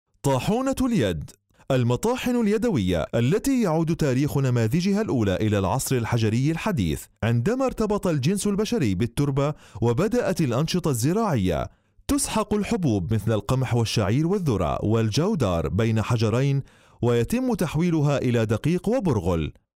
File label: arabic